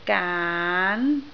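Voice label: "gaan2", Cantonese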